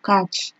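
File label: "catch", Nigerian Pidgin, female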